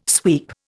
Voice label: "sweep", Indian